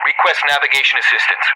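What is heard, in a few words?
"request navigation assistance", English; Messages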